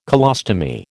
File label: "colostomy", American